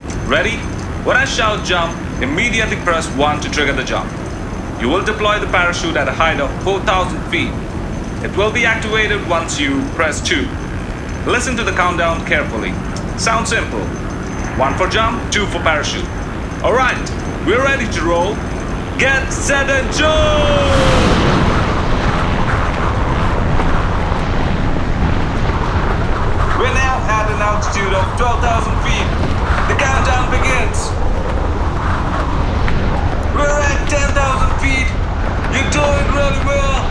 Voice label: ivr